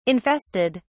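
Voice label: "infested", British English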